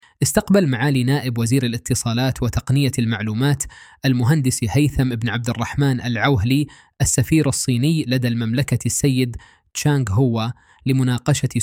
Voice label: arabic